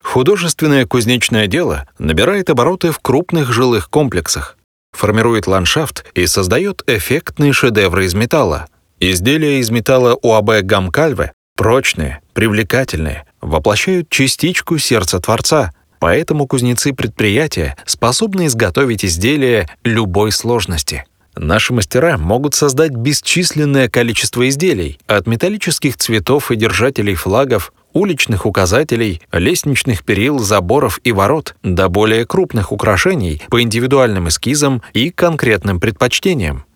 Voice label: russian voice over corporate narration

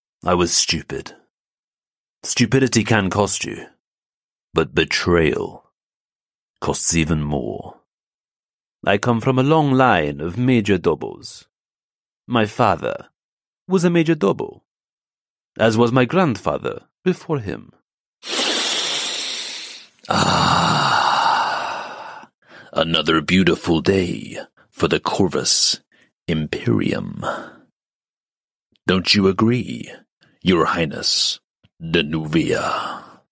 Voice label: English Voice Over theatrical narrative

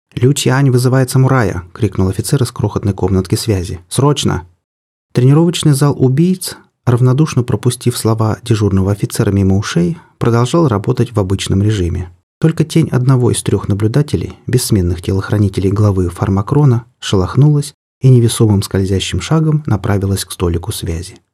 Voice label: Russian voiceover

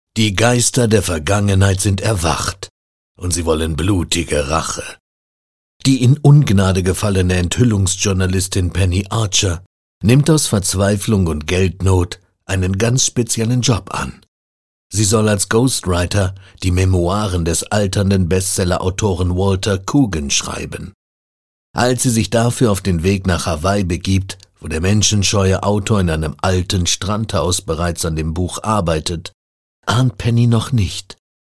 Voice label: Calm Warm

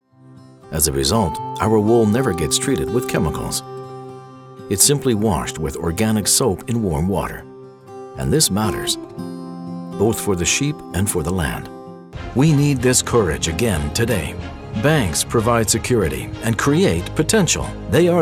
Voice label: Corporate imaging

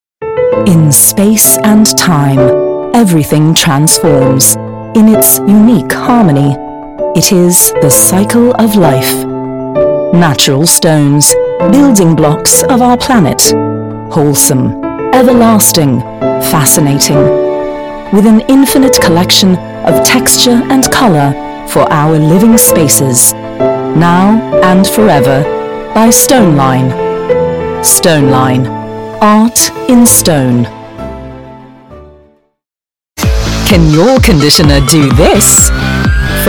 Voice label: Commercial

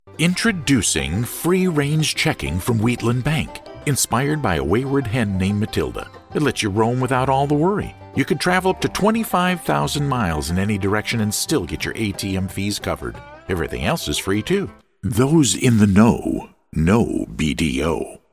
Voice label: American English Voiceover

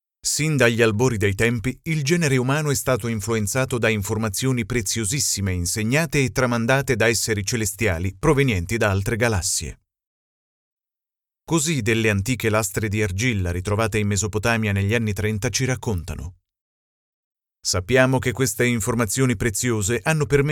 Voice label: Italian voiceover Straight Narration

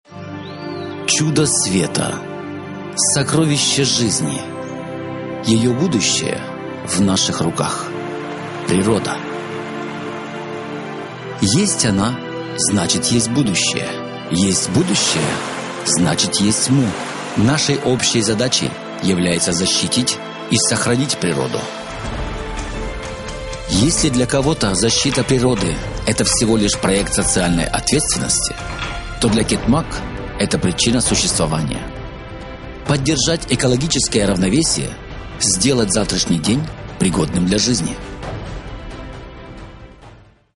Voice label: Russian Voice Over Corporate Promotion

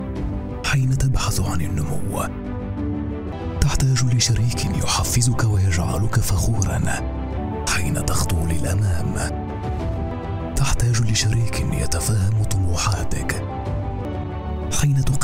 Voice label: Arabic voice over sincere warm

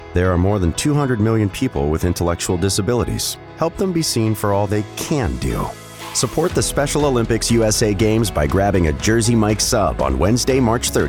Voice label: english voice over variety commercials

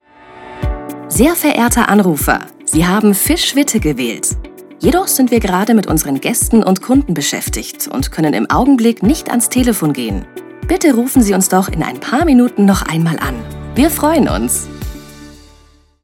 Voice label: Telephone announcement

